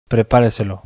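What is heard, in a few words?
"prepareselo", Spanish, bizkaia; acento lexical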